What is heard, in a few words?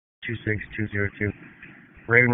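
Dispatch 1, P25; wake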